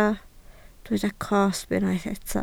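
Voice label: "cosb" Welsh, spoken word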